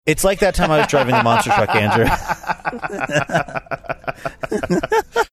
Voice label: saying its like that time i was driving monster truck good laugh